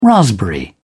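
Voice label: "raspberry", British English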